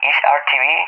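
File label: "is RTB", English, Spain; Messages; DCS; Allied Flight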